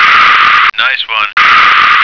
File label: "nice one", American